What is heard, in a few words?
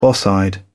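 "boss eyed", British English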